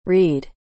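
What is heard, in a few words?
"read", English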